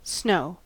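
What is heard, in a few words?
"snow", US English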